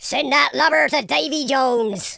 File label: "you'll regret that", Pirate